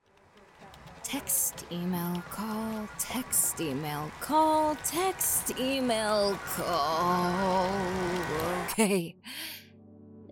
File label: Commercial